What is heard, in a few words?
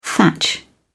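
"thatch", British English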